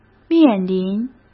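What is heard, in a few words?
"面临", Mandarin Chinese; hsk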